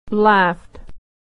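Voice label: "laughed", American English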